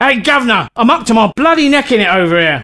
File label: Help, male, voice commands; svencoop